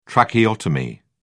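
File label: "tracheotomy", British English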